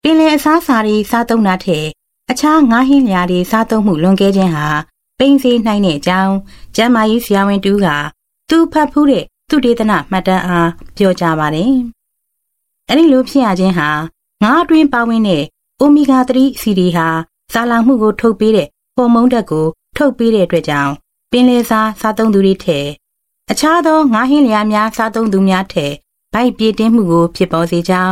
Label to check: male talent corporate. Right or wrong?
Wrong